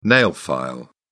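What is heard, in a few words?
"nail file", British English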